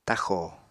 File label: "taxò", apatani